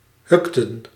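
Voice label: "hukten", Dutch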